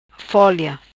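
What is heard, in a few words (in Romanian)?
"Folia", Macanese Patuá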